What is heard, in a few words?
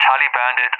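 "tally bandit", English, UK; Messages; DCS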